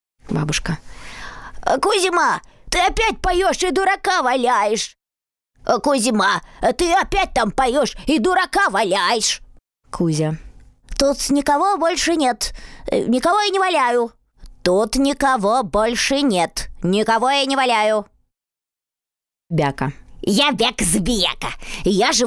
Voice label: skazochnyj personazhi geroi multfilmov

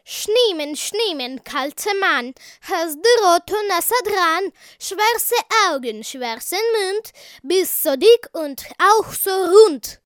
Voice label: lang nemeckij, golos

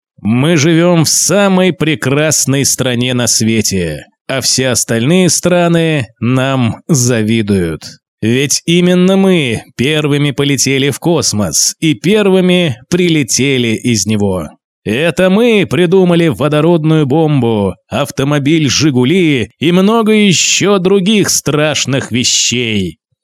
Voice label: diktor; nasha rasha

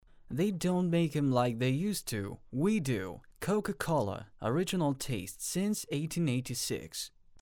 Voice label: lang anglijskij

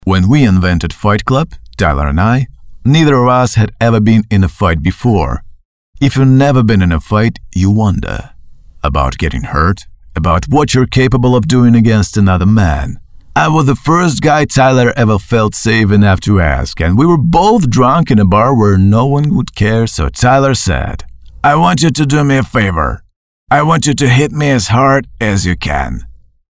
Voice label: anglijskij

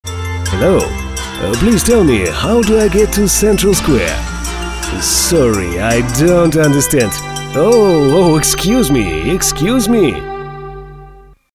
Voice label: anglichanin

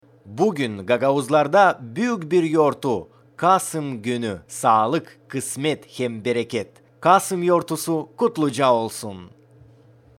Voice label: lang gagauzskij

